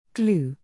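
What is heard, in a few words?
"glue", English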